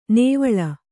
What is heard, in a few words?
"ನೇವಳ", Kannada; nēvaḷa; noun; a necklace.